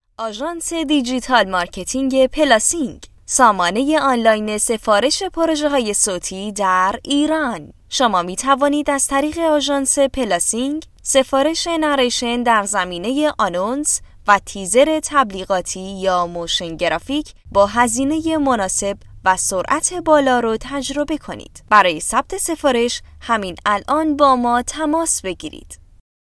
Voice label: narrator